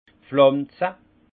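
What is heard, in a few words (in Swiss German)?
"pflanze", alsace Haut-Rhin; vin; planter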